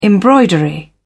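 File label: "embroidery", British English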